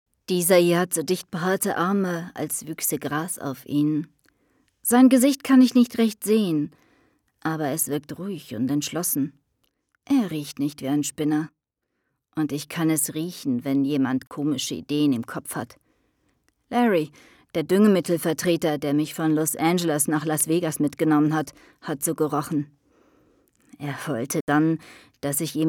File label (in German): hoerbuch